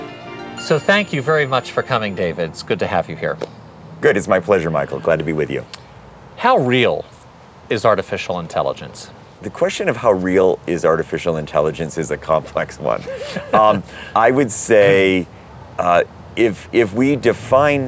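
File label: US English broadband, speech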